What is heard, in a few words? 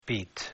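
"beat", English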